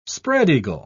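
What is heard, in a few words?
"spread-eagle", English, British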